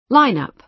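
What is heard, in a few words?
"line-up", American English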